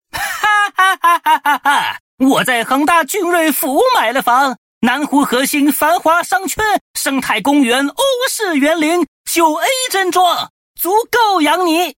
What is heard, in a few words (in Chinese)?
电台广告; 恒大珺睿府; 周星驰